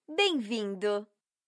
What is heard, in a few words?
bonjour, voix